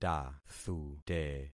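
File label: "tathute", concatenated syllables; Affixing experiment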